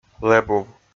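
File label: "лэбув", Komi, male; kpv audio dict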